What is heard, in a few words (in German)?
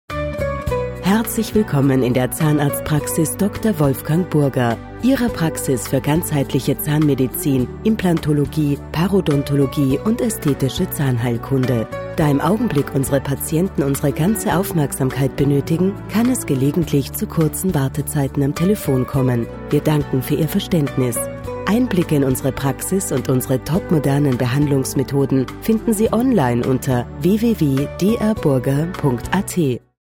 telefonansage text vor melden; Arztpraxis Text vor Melden